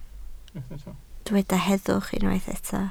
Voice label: "heddwch", Welsh